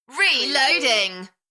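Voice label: British female Reloading Enthusiastic; beanstalk audio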